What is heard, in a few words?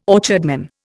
"orchardman", Indian